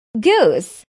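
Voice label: "goose", English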